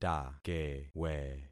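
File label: "takewe", concatenated syllables; Affixing experiment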